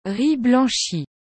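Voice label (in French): "riz blanchi", French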